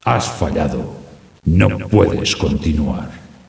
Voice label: failed, announcer

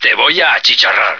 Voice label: burn baby, male

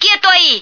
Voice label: "stay down", female; Marisa the Magician

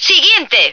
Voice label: "next", female; Marisa the Magician

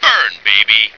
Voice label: burn baby, male

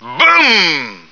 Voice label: boom, male; Marisa the Magician

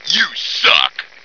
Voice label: you suck, male

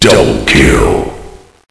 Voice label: double kill, announcer

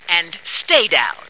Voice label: "stay down", female

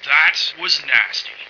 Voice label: nasty, male; Marisa the Magician

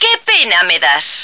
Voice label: "loser", female; Marisa the Magician

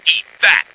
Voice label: eat that, male

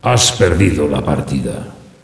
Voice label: lost match, announcer

